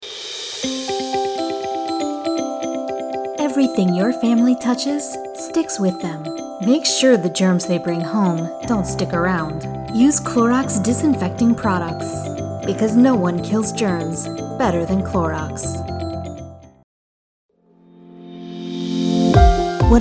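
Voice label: Commercial, voice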